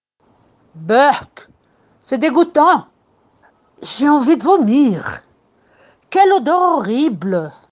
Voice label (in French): Voix Marie; énigme 3